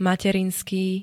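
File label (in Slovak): "materinský", Slovak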